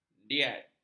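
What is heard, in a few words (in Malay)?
"diat", Malay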